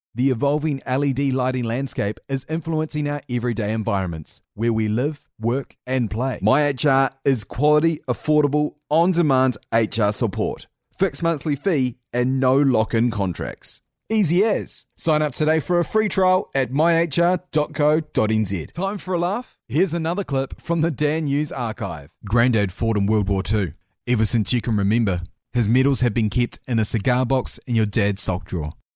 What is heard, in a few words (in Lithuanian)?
(VYR) Australu balsas; vyras